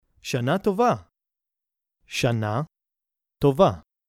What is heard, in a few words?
hebrew happy new year